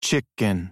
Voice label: SLOW CHICKEN, American; American IPA phonic